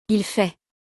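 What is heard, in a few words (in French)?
"il fait", French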